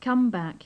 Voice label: "come back", English